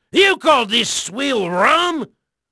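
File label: Portuguese male, voice; Pirates Of The Caribbean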